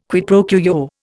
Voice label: "quid pro quo", Indian

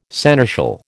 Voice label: "seneschal", American

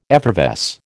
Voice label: "effervesce", American

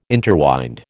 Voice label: "interwind", American

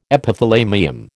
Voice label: "Epithalamium", American

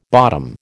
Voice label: "bottom", American

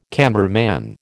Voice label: "cameraman", American

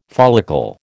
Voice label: "follicle", American

